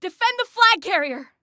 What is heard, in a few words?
Defend Flag Carrier, Female; Female 02